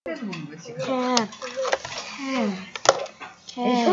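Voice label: "can", spoken word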